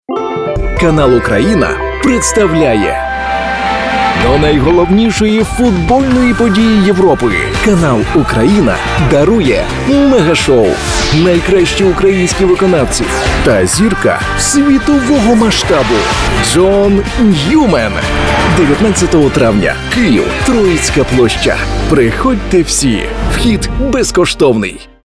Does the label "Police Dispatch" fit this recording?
No